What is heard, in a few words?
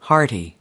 "hearty", us